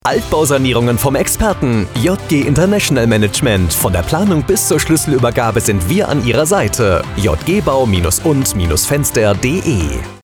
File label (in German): Radio Spot